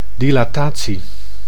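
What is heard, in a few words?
"dilatatie", Dutch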